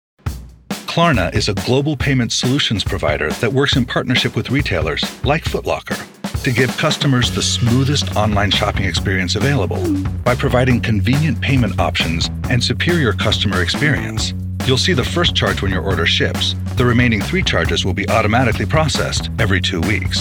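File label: explainer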